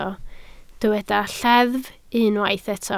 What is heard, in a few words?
"lleddf", Welsh